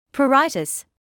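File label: "pruritus", British English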